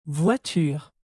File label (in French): "voiture", French native; alphabet fr